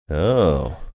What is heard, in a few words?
drawn out oh